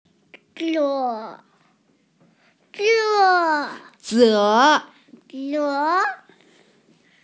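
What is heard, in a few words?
"ze2", Mandarin Chinese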